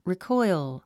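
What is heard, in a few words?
"recoil", English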